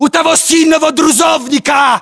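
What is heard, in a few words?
landmark near truck blue, battlechatter, Russian; localized english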